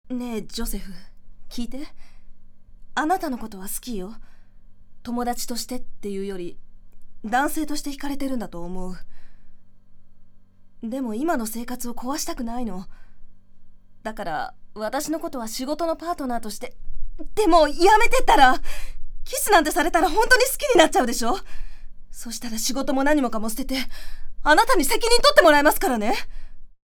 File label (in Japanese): キャリアウーマン